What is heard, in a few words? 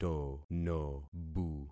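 "tonobu", concatenated syllables; Affixing experiment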